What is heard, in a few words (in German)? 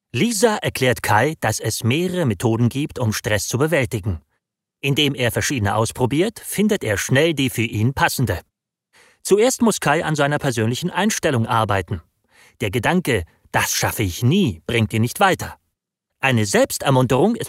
stressbewaeltigung erklaervideo cold voice